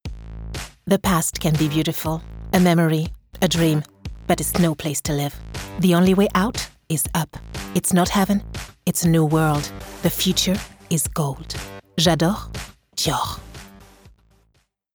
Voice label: female french english with french accent commercial; dior